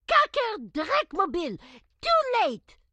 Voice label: grandma chopper missed; Blind Drive